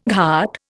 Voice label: "ghat", Indian